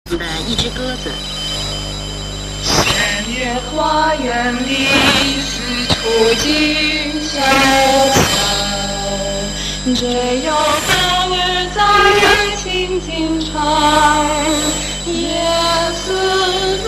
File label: Radio Moscow Chinese